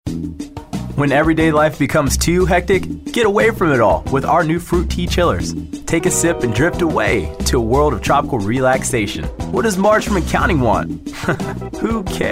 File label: attitude